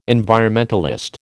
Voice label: "environmentalist", American